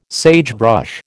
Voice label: "sagebrush", American

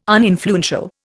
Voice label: "uninfluential", Indian

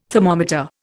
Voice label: "thermometer", Indian

